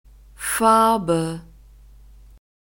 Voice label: "farbe", German; neuter